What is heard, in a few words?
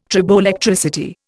"triboelectricity", Indian